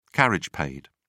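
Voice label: "carriage paid", English, British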